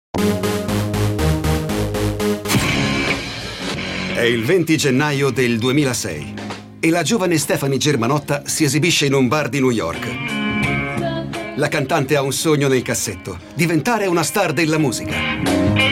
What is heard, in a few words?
cartoon